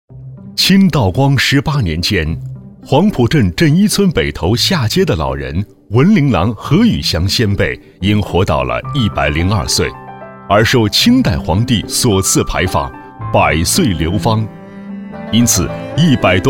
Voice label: 男,舌尖; 得福腊味

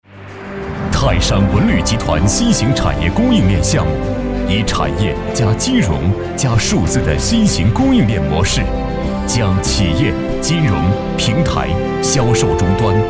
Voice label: 男 企业形象

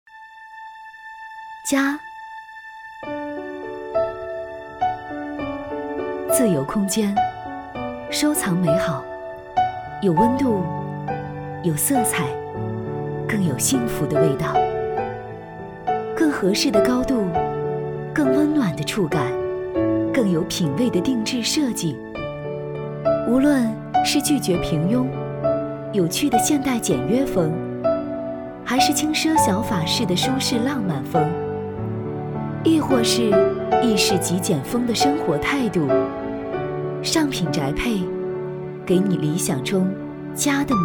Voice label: 女,电视广告; 尚品宅配

